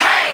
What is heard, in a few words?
"hey" group of men; ooh ahh